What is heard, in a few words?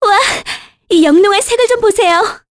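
Vox Victory, Korean; Talisha; kings raid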